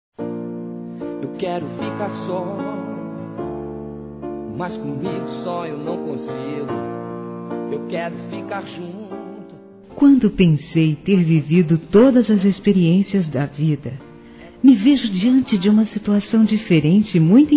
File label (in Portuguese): Variados Româtica Feminino, Telemensagens; Românticas